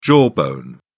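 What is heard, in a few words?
"jawbone", British English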